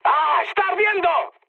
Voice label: on fire, mood med, speech; War Thunder